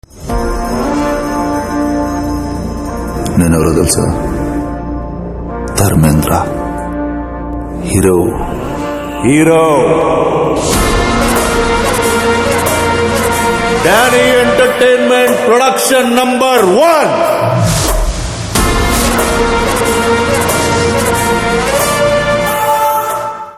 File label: First Look Dialogue Ringtone; Bheemla Nayak; Rana